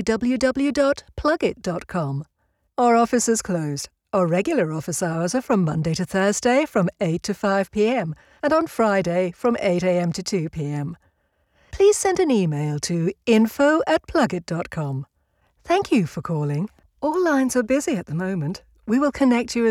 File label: Telphone on hold; RAW